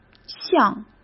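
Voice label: "项", Mandarin Chinese; hsk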